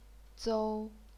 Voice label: "zou1", chinese pinyin

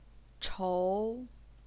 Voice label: "chou2", Chinese pinyin

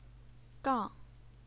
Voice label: "gang4", chinese pinyin

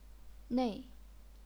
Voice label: "nei4", chinese pinyin

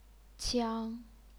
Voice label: "qiang1", chinese pinyin

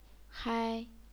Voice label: "hai1", chinese pinyin

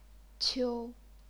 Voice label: "qiu1", chinese pinyin

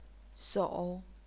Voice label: "sou3", chinese pinyin

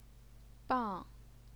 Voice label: "bang4", Chinese pinyin

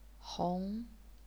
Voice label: "hong2", Chinese pinyin

